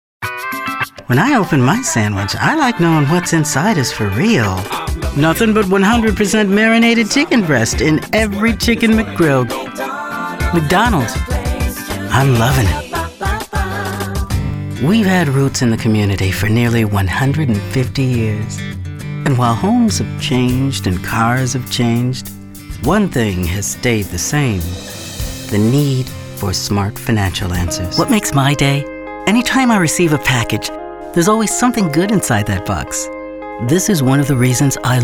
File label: commercial